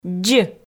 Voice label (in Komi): "дз", Komi